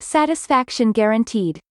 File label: Delivery Drone VO Common